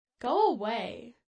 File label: "Go Away", Female; Talking; Voice Box